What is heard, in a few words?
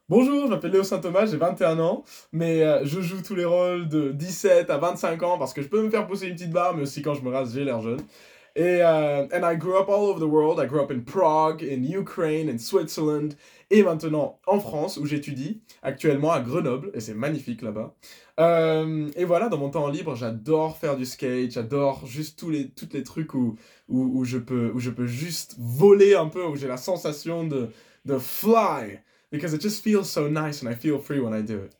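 Voice FR, French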